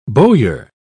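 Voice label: "bowyer", English, British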